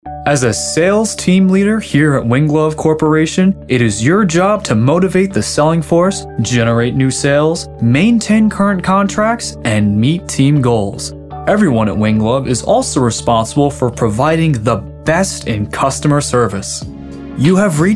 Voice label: Narrative